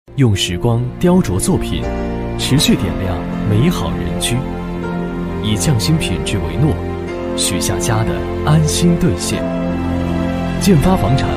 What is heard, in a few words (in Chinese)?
男 宣传片 房产; 建发房产华东集群